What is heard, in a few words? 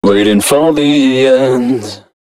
male d# 130, short hooks, Dance Voice